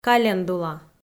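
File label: "календула", Komi, female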